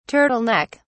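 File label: "turtle neck", British English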